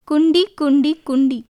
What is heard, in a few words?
Tamil; குண்டி; kuṇṭi; [kuɳɖi]; buttock; 尻